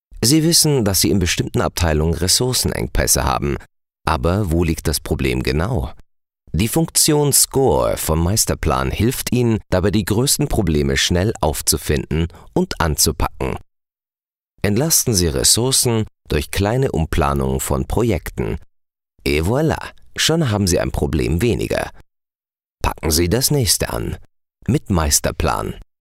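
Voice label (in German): image, deutsch männer; sprecher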